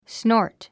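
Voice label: "snort", English word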